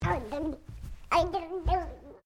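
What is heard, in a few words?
MALE 2 year old PLAYFUL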